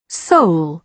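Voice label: "soul", British English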